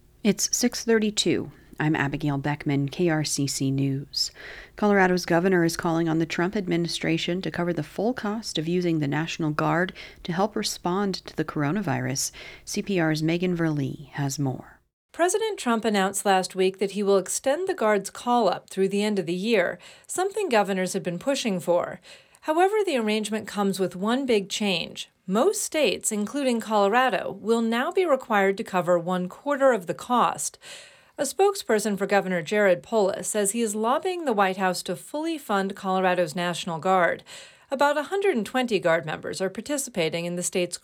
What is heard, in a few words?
newscast